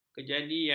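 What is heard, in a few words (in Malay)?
"kejadian", Malay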